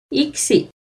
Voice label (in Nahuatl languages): "iucci", Náhuatl; cocer, madurar; NAHUATLAHTOLLI